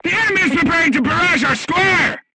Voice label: voice message art shooting warning, crew tanks vietnamese